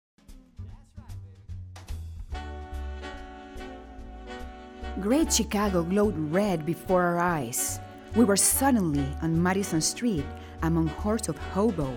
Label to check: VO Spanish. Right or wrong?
Wrong